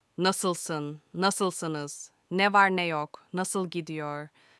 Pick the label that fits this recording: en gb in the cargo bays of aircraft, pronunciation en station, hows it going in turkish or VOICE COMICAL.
hows it going in turkish